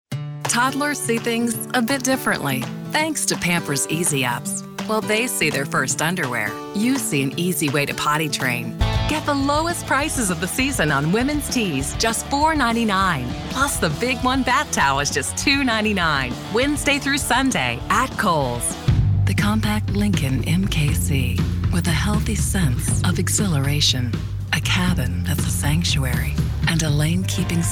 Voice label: commercial